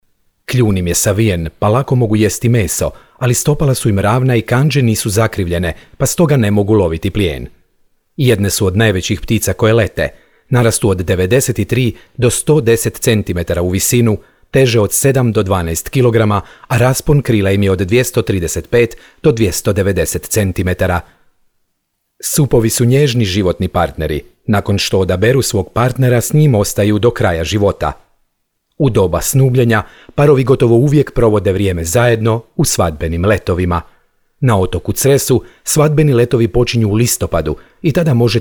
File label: male croatia